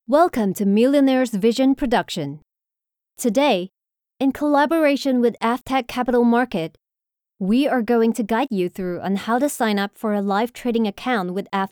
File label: english e-learning